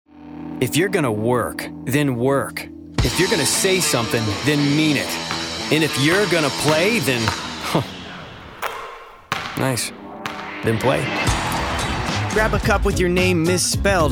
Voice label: english american commercial, american voice actors; United States; the "non-announcer" voice over guy you've heard millions of times